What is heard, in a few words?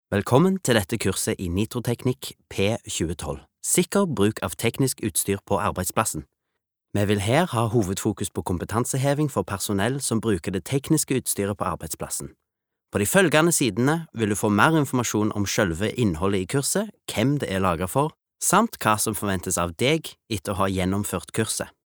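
elearning stavanger, Norwegian, male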